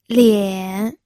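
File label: "lian", Mandarin Chinese, third tone; pinyin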